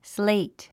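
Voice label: "slate", English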